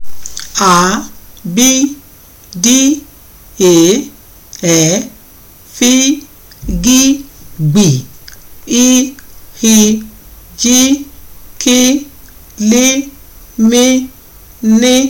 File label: Yoruba Alphabets Recorings